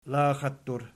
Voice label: "laxattur", Archi